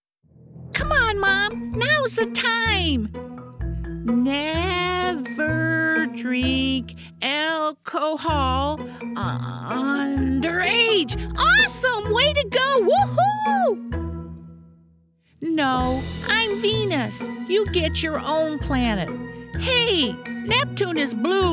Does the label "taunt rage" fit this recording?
No